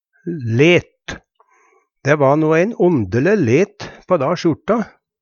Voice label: "let", Numedalsmål

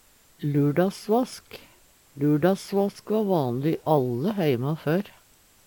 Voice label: lurdassvask, Numedalsmål; Substantiv hankjønn

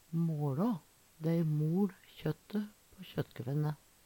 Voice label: "måLå", Numedalsmål; Verb; Hushald, mat, drikke; Veggli

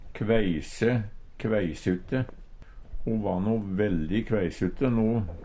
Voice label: kveise, Numedalsmål

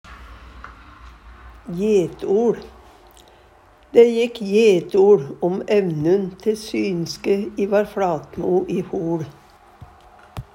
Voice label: jetoL, Numedalsmål

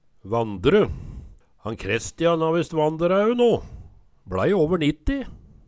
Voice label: "vandre", Numedalsmål; Ordet er i denne tyding berre bruka i fortid.

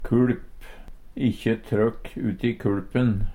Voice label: "kuLp", Numedalsmål

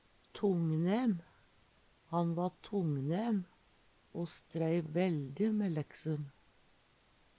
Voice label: tongnem, Numedalsmål

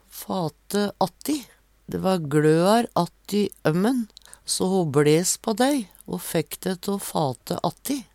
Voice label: fate atti, Numedalsmål

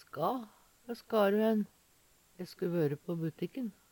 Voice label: "ska", Numedalsmål; Verb; Hå ska`ru henn? E sku vøre på butikken.

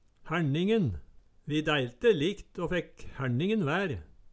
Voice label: hærningen, Numedalsmål; Eksempel på bruk Vi dæiLte likt å fekk hærningen vær

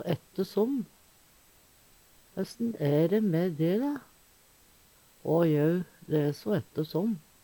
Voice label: ette som, Numedalsmål

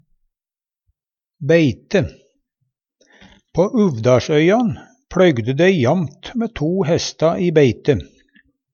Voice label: "beite", Numedalsmål

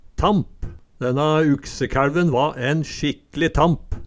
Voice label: tamp, Numedalsmål

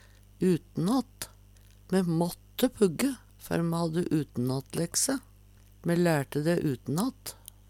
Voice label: utenat, numedalsmal

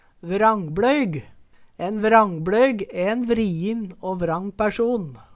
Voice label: "vrangbLoyg", Numedalsmål

